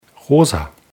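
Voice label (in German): "rosa", German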